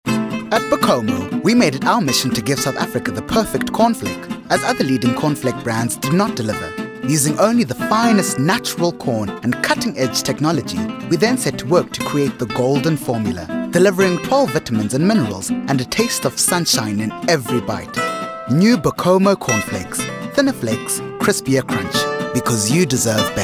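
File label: english south african male commercial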